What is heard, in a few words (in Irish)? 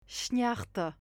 "sneachta", Connachtach; Tearmai Aimsire